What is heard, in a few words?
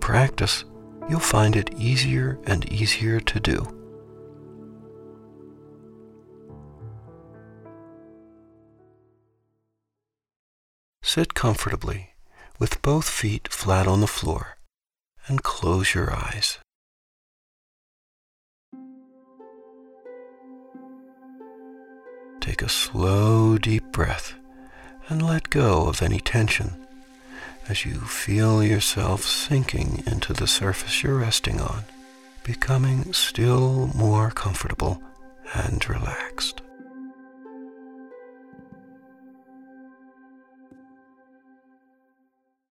Morning Meditation Intro